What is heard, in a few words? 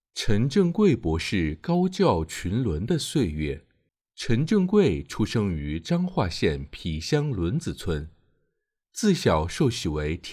Chinese, Male